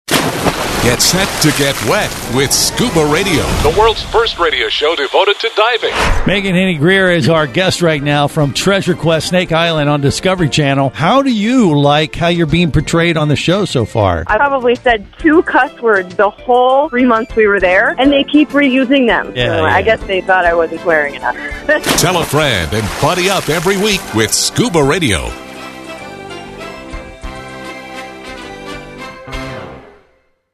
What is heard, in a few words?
Promo